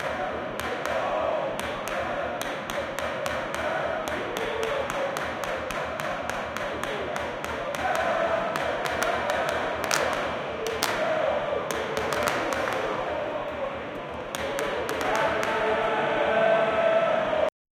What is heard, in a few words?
live football tv from an, commentary